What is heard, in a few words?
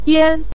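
"dian" Mandarin Chinese, neutral tone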